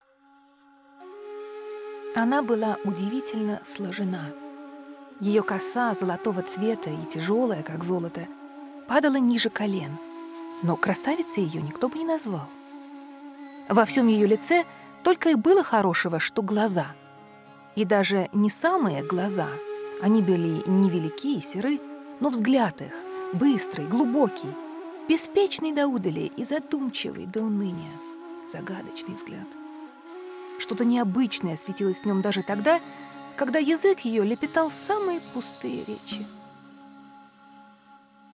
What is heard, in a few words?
Corporate, voiceovers; Sample recording: corporate and character acting styles.; Russian; Russian demo 2